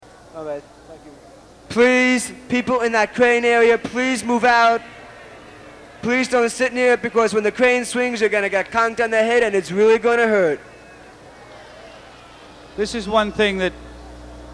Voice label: Stage Dialogue & Stage Announcements; woodstock